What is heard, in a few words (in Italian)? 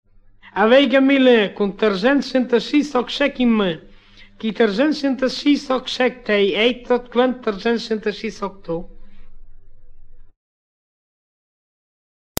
scioglilingua, romagna